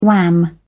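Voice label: "wham", British English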